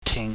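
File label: "ting", Cantonese, low falling tone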